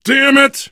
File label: reaction negative, biker voice